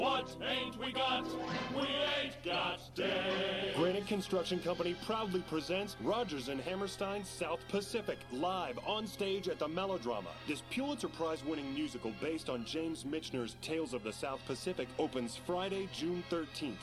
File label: announcer melodrama theatre